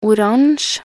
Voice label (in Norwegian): "oransje", nb_NO